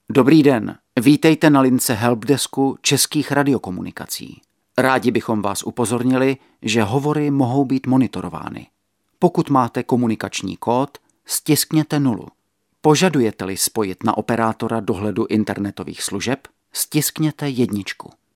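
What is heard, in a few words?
male Czech IVR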